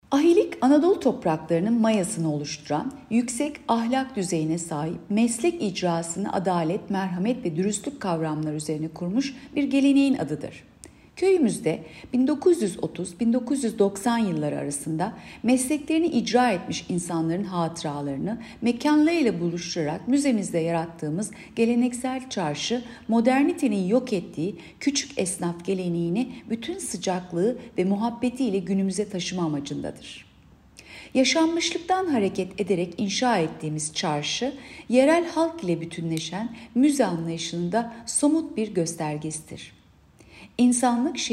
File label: turkce